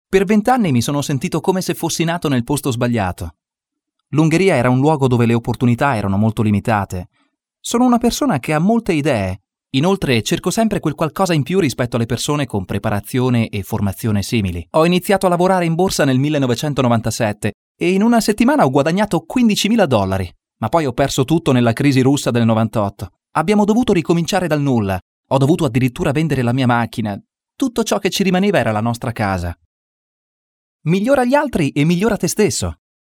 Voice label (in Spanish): narracion italiano